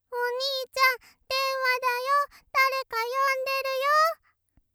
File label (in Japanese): ロリ 電話だよ